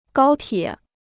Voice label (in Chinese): "高铁", Chinese